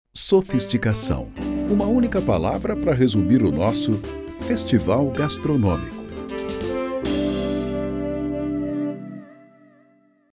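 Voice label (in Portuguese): Português - Brasileiro, Masculino, Institucional Coloquial, Voz Padrão - Grave; Clássico Sofisticado; Voz Madura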